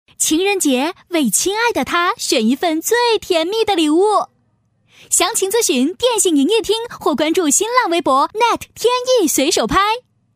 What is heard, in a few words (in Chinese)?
女 广告配音 年轻活力; 电信; 轻松活泼